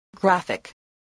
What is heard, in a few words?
"graphic", spelling